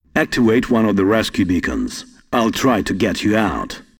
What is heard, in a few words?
Voice Dialog